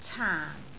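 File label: "caan4", Cantonese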